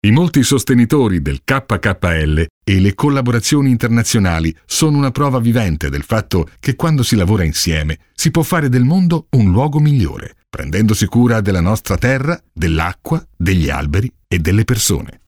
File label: italian documentaries